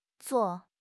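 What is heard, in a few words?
"zuo4", Chinese; HSK4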